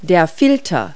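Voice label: "filter", English word; Multi-Lingual Talking Picture Dictionary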